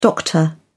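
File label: "doctor", British English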